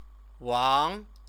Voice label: "wang", Chinese; 王; Wang [surname]; proper name; Chinese lessons